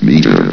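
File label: "meter", English, station announcer voice; S.P.L.U.R.T-Station-13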